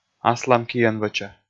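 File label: "аслам киӧн вӧча", Komi-Zyrian, male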